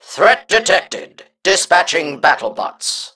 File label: Nonbinary Mech Play